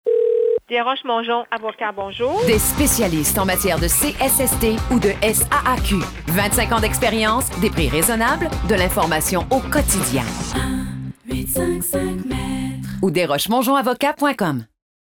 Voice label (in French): pub, Avec Tél; 15sec